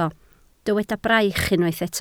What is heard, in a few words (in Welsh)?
"braich", Welsh